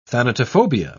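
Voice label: "thanatophobia", English, British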